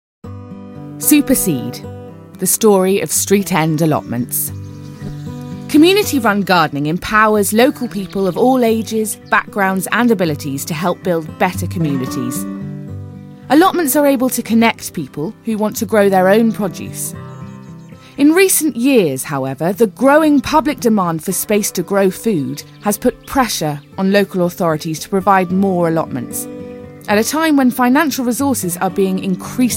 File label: Narrative; Super Seed